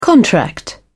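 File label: "contract", British English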